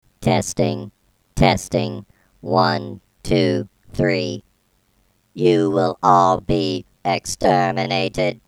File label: dalek voice